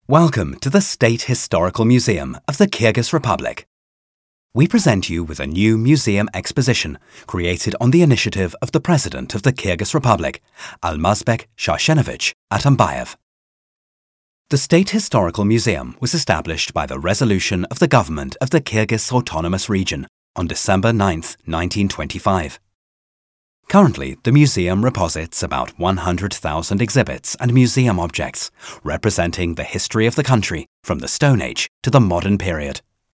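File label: British English, Neutral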